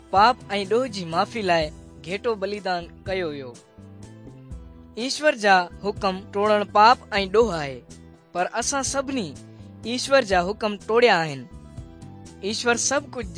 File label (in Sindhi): good news sindhi dukslinu, drama